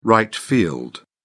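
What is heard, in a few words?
"right field", British English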